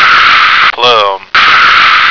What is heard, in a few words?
"plum", American